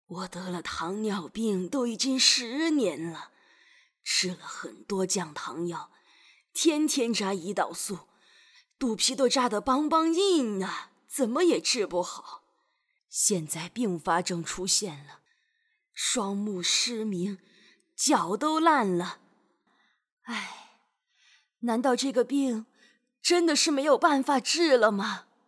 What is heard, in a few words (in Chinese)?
动画 老人 老太; 糖尿病